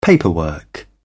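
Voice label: "paperwork", British English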